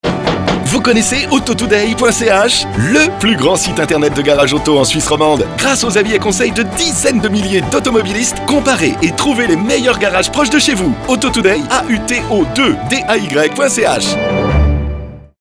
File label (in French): SPOT PUB; ROUGE FM